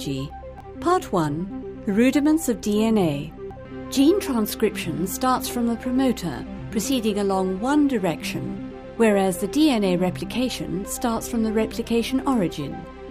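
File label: E-Learning Swedish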